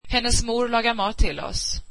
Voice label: swedish her mother cooks for us

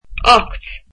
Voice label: "eight", Irish

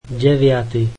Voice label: slovak ninth

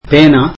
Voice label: tamil pen

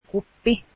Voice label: cup, Finnish

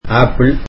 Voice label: tamil apples

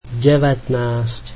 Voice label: slovak nineteen

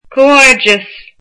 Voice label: Congratulations, irish

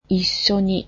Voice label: "together", japanese

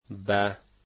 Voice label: to, Dari

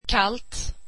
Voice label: swedish cold

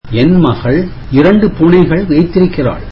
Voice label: tamil my daughter has two cats

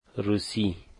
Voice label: "Russian", Dari

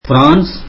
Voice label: tamil France

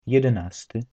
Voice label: polish eleventh, polish

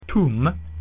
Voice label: "Then", latin

